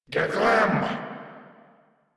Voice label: Attack, Grineer Male

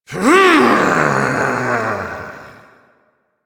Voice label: Frustration

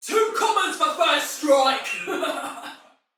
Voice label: See Player Shout, Female, Soldier; Dialog; Barks; Battle; Sinecure

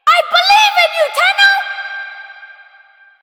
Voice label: Child; Villagers; en; Ostron; Warframe; Cetus Invasion

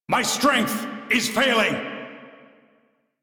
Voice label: Crew Near Death, Male; Dialog; en; Warframe; Railjack Crew; Arbiters Hexis

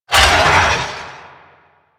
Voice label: Necramech Melee Attack, Barks; Warframe

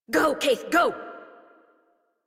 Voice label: Horse Sprint Adult; Warframe; Duviri

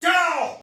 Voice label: Attack, Soldier Male; Sinecure

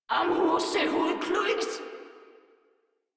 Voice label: Patrol Grineer Female; Warframe

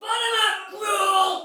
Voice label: Attack Heavy Soldier Female, Battle; Dialog; Barks; en; Sinecure

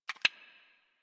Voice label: Horse Start Adult; Warframe; Duviri

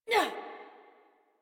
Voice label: Adult Operator Jump, en; Warframe; New War

